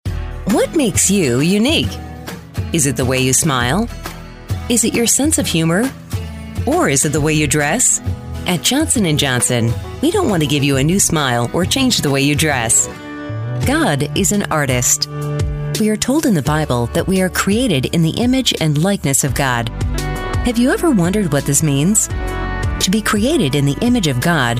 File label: Narration Voiceover